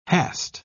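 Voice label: "hast", British English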